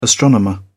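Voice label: "astronomer", British English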